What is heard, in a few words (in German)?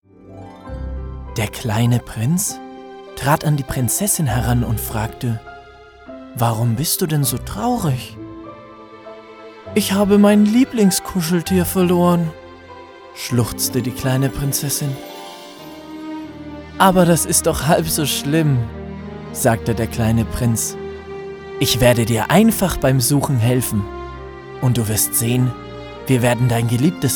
Hörspiel erzählerisch lebendig